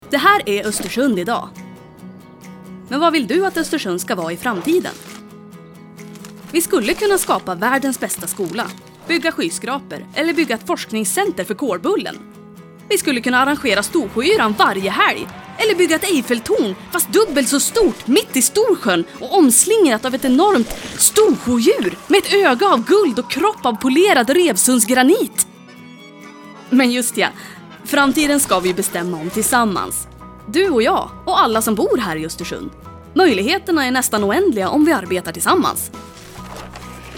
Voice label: female, Swedish, Northern Swedish dialect corporate; oestersunds kommun corporate presentat